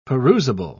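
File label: "perusable", British English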